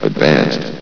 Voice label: "advanced", vox; Source Engine; bshift